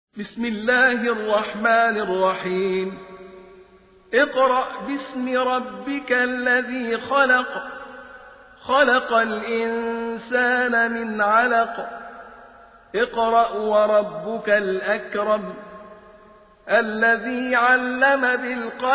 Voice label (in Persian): ترتیل; سوره علق